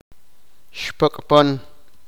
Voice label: sipakapense; gusano